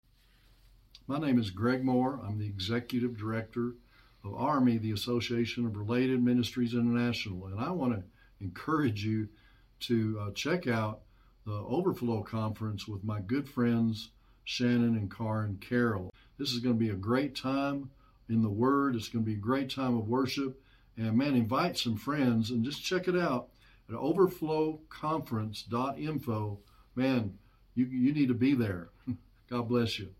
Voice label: short promo voiceover